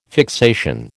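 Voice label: "fixation", American English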